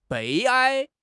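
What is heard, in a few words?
"悲哀", Chinese; HSK6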